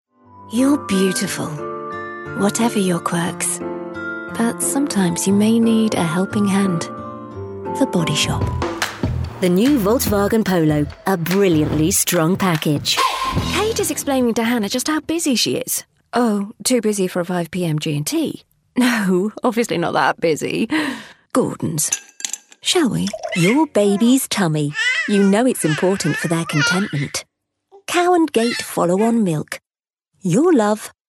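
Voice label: Commercial, New Zealand English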